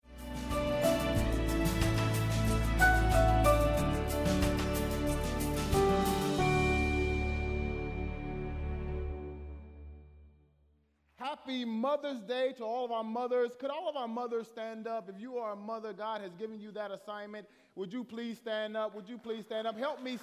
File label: English Sermon